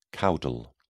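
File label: "caudal", British English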